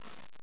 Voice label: emotion